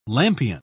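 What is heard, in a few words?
"lampion", British English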